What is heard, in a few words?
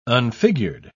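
"unfigured", English, uk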